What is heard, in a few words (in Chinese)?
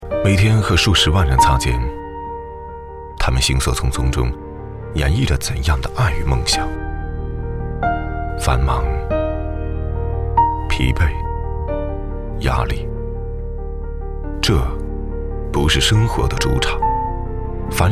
男国 微电影 旁白类风格 温柔